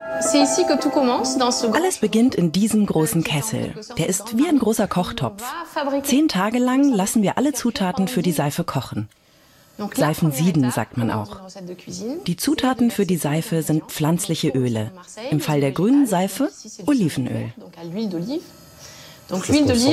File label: Voice Over